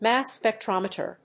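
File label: "Mass Spectrometer", English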